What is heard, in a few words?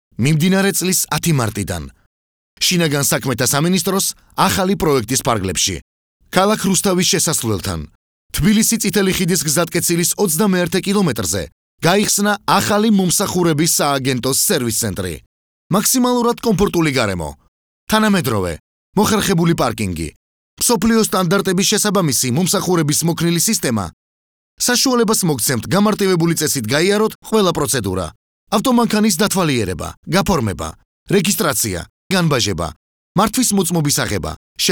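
georgian male commercial; voice demos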